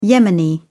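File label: "yemeni", British English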